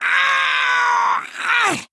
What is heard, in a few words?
Male Imp Death